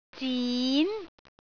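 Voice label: "zin2", Cantonese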